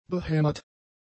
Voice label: "behämmert", German; adjectives